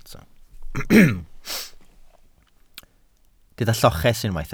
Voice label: "lloches", Welsh